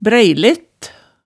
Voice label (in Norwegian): "bræilett", hemsedalsmaalet tale